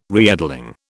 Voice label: "reedling", British

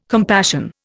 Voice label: "compassion", Indian

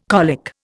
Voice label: "colic", Indian

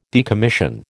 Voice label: "decommission", American

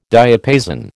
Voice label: "diapason", American

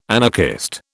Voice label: "anarchist", British

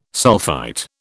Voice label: "sulphite", British

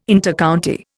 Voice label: "Intercounty", Indian

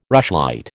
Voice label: "rushlight", American

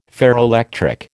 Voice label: "ferroelectric", American

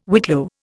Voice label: "whitlow", Indian

